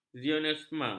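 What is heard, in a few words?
"zionisme", Malay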